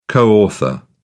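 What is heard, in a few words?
"co author", English, British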